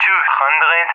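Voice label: "20", English speech; Allied Flight; Numbers; DCS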